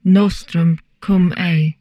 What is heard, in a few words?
humanoid portrait female; Stellaris; humanoids